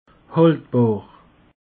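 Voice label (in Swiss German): "haltbar", Alsatian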